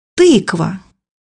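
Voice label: "pumpkin", Russian; bukvar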